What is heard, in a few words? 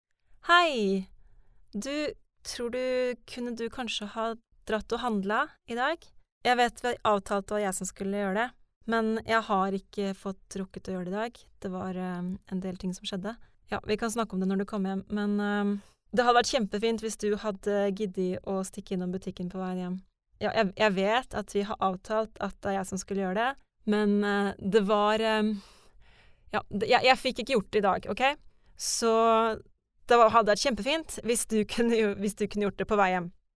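Norwegian female voice over, character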